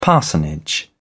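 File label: "parsonage", British English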